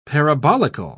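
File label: "parabolical", British English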